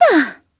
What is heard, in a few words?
Landing, jp; heroes; Shamilla; kingsraid